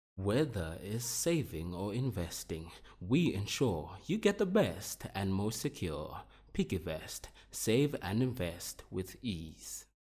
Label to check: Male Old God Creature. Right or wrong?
Wrong